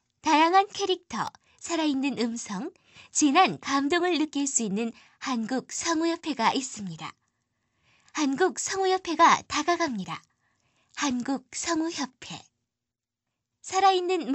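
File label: Korean, female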